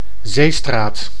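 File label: "zeestraat", Dutch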